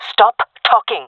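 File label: request quiet, female voice; player vo jungle fem; Counter Strike Model Pack; CS:GO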